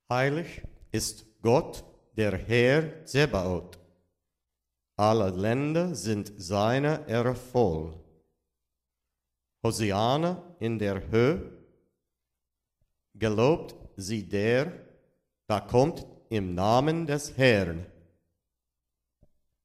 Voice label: Heilig Enunciation sentence phrase